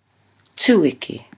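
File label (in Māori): "tueke", Māori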